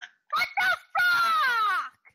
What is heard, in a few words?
rage